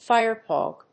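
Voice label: "firedog", English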